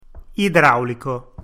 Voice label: "idraulico", Italian